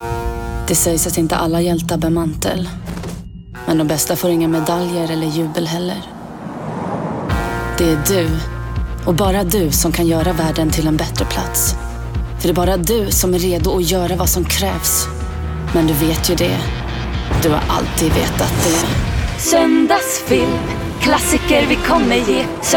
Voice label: SWEDISH